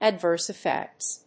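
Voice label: "adverse effects", English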